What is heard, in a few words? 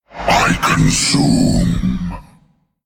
Male Elemental Attack